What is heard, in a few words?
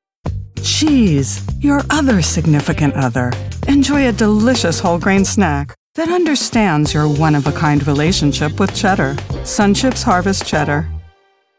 Commercial; Sun Chips